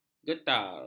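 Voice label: "getar", Malay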